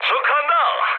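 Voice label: Korean player killed enemy mood med, speech; War Thunder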